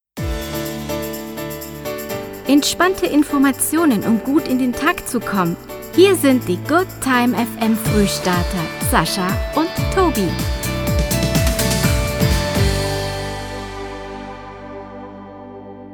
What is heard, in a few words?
Station Voice; Goodtime FM